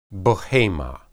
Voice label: cattle, Hebrew